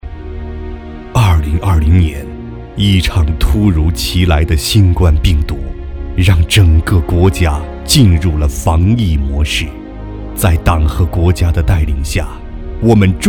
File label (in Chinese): 国语男 解说; 北青报采访